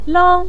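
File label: "lang", Mandarin Chinese, neutral tone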